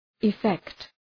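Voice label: "effect", English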